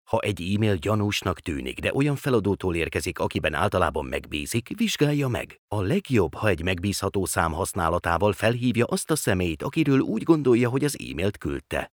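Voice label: e-learning, Hungarian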